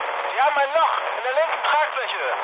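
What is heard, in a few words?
German left wing mood med, speech; War Thunder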